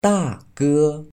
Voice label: "大哥", Chinese